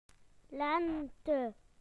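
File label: "spring" British English; PictureDictionary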